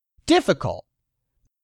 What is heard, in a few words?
"DIFFICULT", english; 3000 words